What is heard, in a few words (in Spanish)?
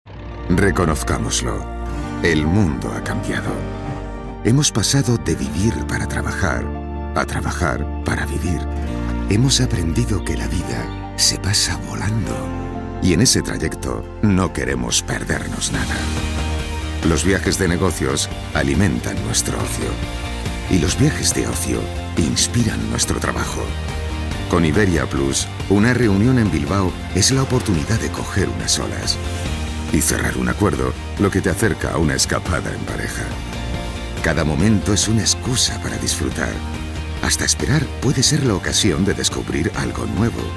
Espanol Espana Masculina Adulta